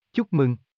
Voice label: Tieng noi chuc mung giong nam

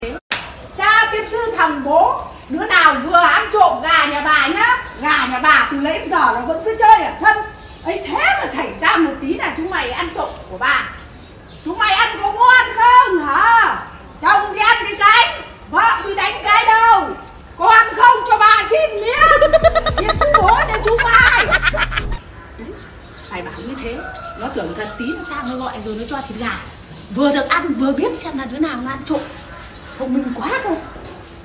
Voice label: tieng chui trom ga hai huoc; tiktok

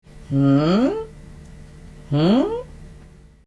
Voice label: Tiếng bày tỏ sự nghi ngờ của chàng trai

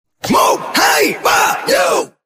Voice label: tieng ho 1 2 3 dzo giong nam dj

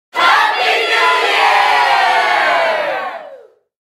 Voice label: tieng nhieu nguoi noi happy new year

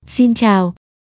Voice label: Giong nu noi xin chao

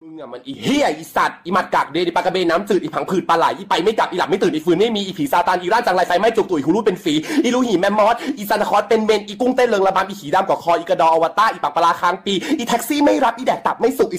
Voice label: am thanh bao thuc loi hai huoc chui nhau; lay loi

